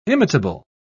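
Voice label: "imitable", British English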